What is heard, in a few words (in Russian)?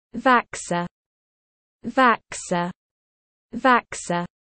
"Vaksa", russian dog names